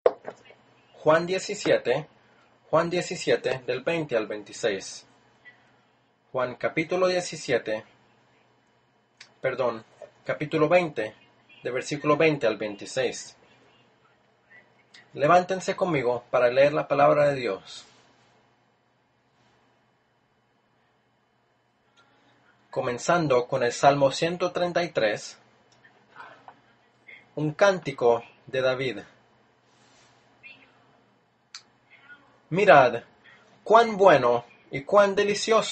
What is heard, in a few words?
Spanish; TheChurchandUnity